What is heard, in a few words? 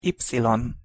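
"y", Hungarian pronunciation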